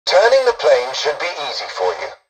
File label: tutorial dialogue, english; War Thunder